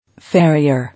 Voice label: "Farrier", English